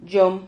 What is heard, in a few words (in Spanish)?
"yom", Silabas